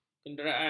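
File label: "kenderaan", Malay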